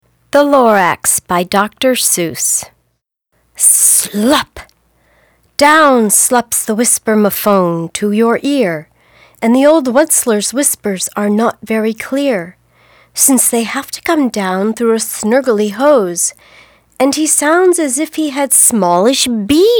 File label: Narration